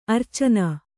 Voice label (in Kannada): "ಅರಚಣ್ಣ", Kannada